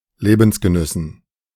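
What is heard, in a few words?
"Lebensgenüssen", German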